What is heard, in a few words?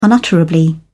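"unutterably", English, British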